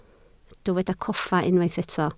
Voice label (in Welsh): "coffa", Welsh